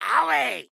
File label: AHurt